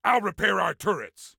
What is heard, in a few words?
Self Repair Turrets, Soldier Male; Soldier Male 03